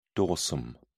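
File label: "dorsum", British English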